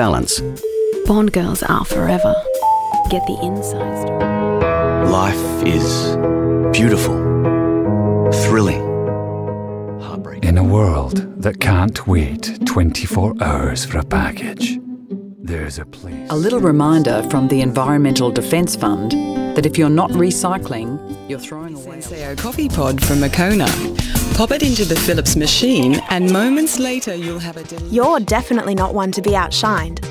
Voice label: Voice Over Compilation